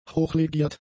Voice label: "hochlegiert", German; adjectives